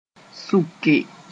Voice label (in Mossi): "sʋke", Moore